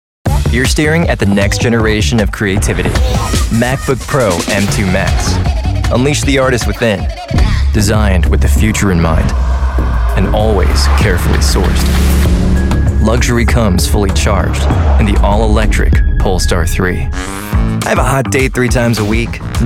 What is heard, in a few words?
commercial